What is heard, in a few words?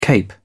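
"cape", British English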